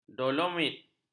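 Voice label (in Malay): "dolomit", Malay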